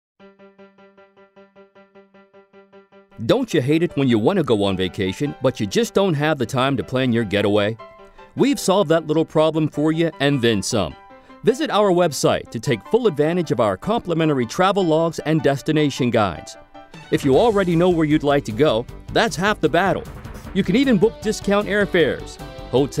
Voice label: Commercial